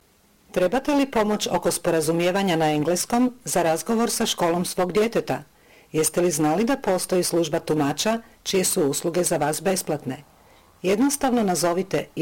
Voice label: croatian; public schools; going to a public school